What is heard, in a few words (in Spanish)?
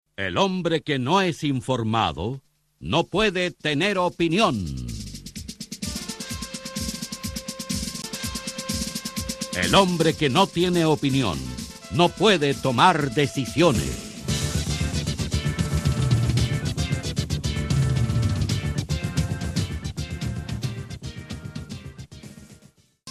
radio